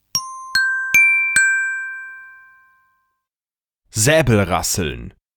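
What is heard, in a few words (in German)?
"Säbelrasseln", German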